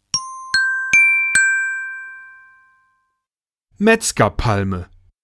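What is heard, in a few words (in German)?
"Metzgerpalme", German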